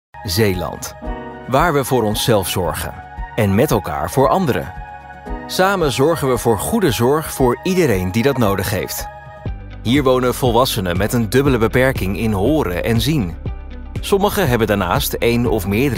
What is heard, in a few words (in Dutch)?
MEDISCH, voiceover